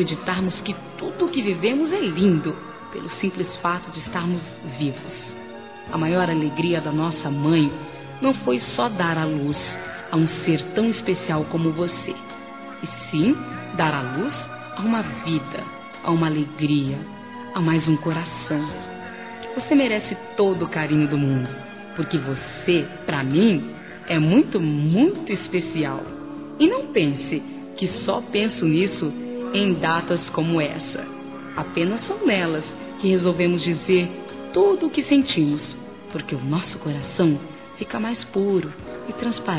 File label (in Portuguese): ANIV. IRMÃ(O) F